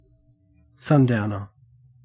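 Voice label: "sundowner", Australian English; pronunciations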